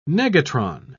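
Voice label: "negatron", British English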